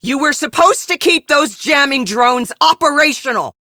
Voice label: Captain Female, drones destroyed, en; Warframe; Railjack; Crp Captain